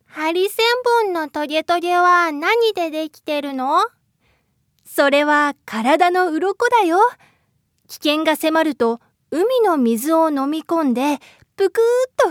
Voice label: 番組ナレーション(絵画)

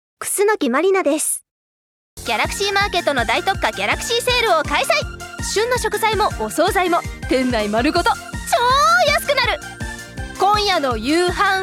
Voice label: CMナレーション

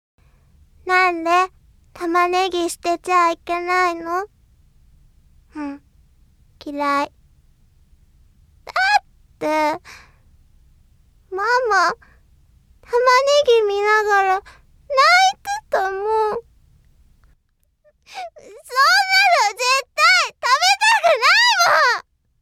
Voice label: 幼女

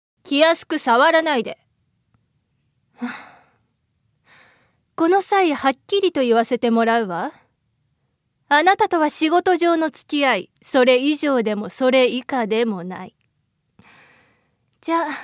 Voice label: 明るい女の子

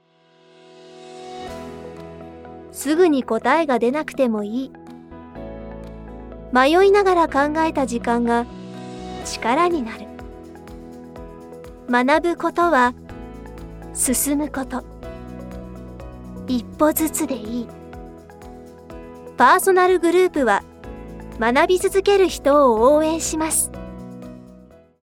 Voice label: PVナレーション

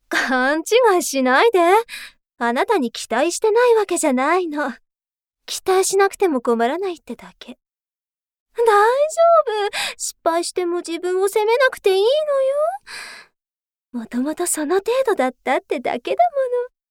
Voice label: 女性

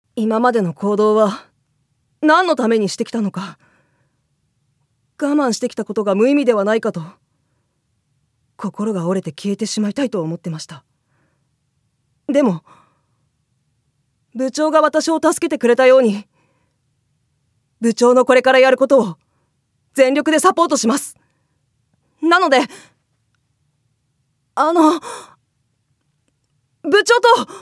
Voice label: 女性3

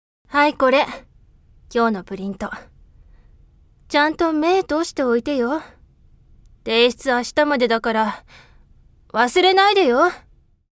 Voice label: 大人しい女の子

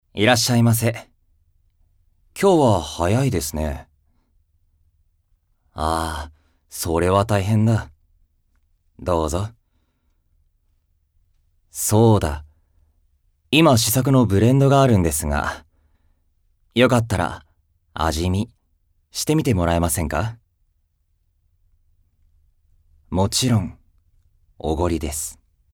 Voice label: 落ち着いたマスター

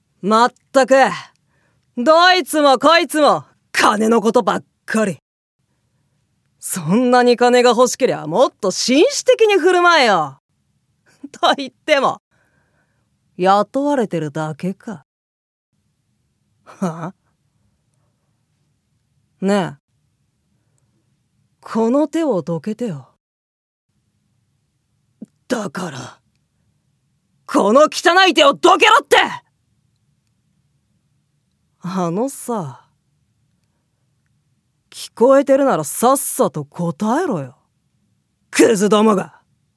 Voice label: 少年2

